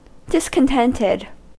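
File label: "discontented", American English; pronunciations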